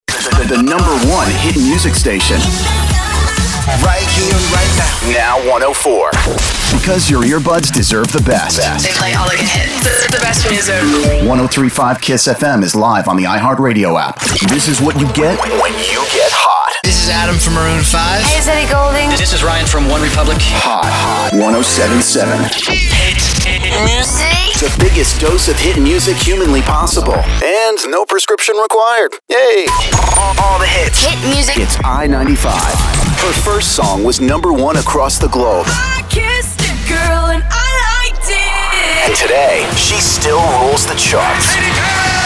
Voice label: CHR Imaging